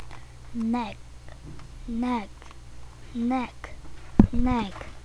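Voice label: "neck", spoken word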